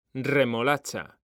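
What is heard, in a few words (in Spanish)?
"remolacha", male; mekanta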